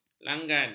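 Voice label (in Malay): "langgan", Malay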